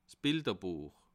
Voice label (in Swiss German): "bilderbuech", alsace